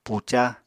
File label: "puca", Apatani